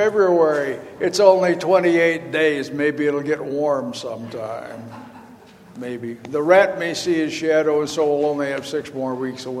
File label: Welcome and Announcements